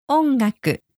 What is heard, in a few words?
"music", Japanese